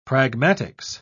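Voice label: "pragmatics", English, British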